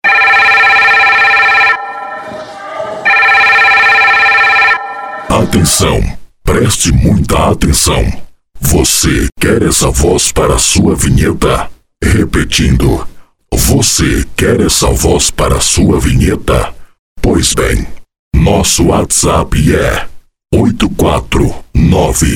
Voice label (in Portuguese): banco de vozes banco de voz locutor que faz voz do big fone; whatsapp